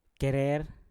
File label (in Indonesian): "kerer" kuskus, Mpur